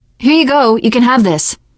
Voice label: alert give item, teen girl, survivor voice

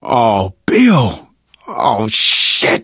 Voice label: grief, survivor voice; Left 4 Dead 2; Source Engine; video games; manager

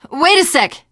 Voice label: wait here, teen girl voice; survivor voice

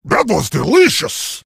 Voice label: heavy sandwich taunt, vo

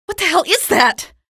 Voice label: alert head, female; video games; npc; source engine; episodic; episode 1

